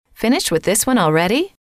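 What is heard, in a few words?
finished With This One Already, female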